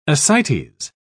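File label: "ascites", British English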